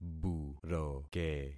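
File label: "buroke", concatenated syllables; Affixing experiment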